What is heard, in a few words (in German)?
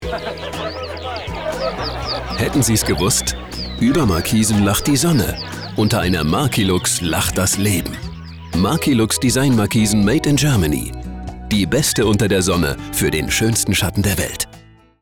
radiospot